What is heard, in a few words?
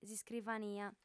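"s'iscrivania", female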